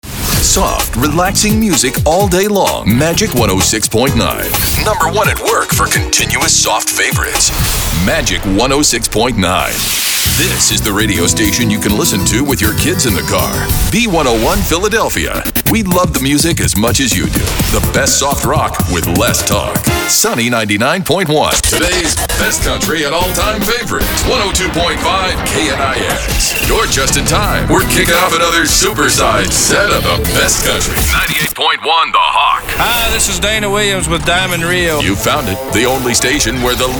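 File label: imaging voice; Formats CHR, COUNTRY, AC, ROCK, NEWS/TALK, SPORTS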